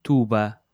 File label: "tuba", Portuguese